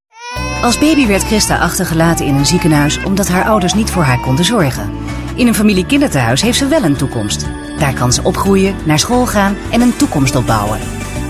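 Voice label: Dutch, female, various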